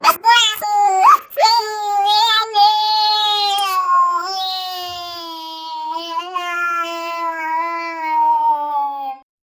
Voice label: cute chipmunk angrily yel, gibberish

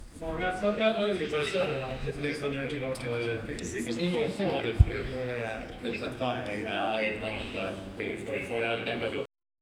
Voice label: muffled voices arguing

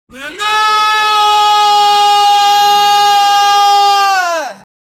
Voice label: screaming lets go

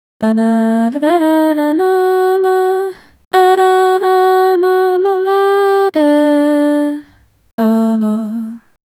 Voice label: gibberish hindi female vocals

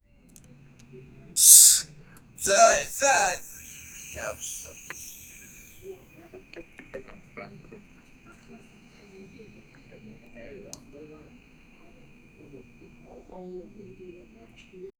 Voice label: man talking about soda an; explosion

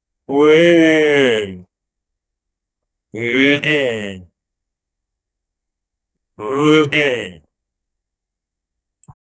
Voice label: man speaking the word, human voice

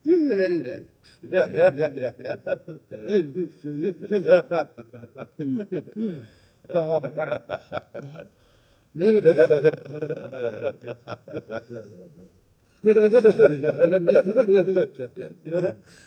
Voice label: thwo males speaking, conversation

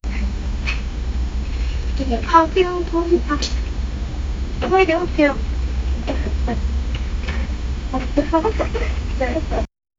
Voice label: nurse chatter faint

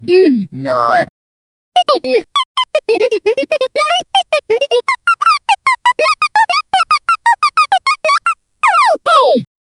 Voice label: cartoon gibberish talk; syllables

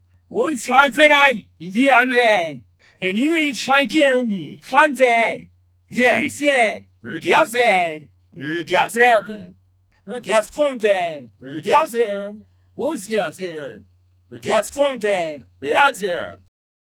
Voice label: two voices arguing

